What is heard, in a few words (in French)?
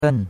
"ben4", chinois; Cours-de-Chinois